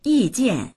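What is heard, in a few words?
"yi4jian4", Chinese; yìjiàn; 意见; 意見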